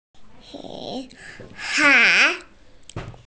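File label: "nǚ hái", Mandarin Chinese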